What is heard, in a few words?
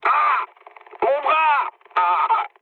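French damaged pilot mood med; War Thunder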